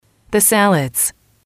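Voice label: "the salads", English, female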